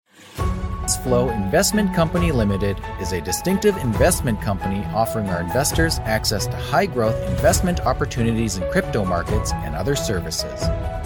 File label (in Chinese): 美式英语 科技大气 科技, 外籍男英; 外语